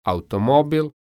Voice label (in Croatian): "automobil", Croatian